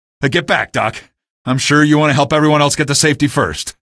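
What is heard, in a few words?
citizen deny train, male; vo; npc; source engine; episodic; episode 1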